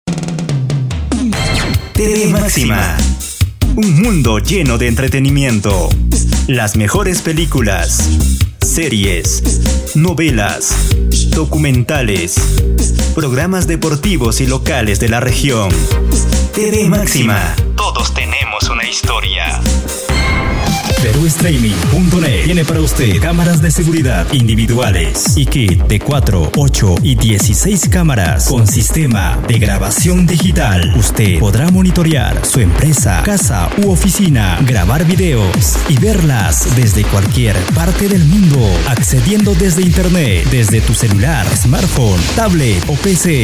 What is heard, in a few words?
MIX COMERCIAL